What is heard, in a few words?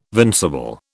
"vincible", British